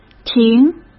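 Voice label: "停" Mandarin Chinese; hsk; chinese-flashcards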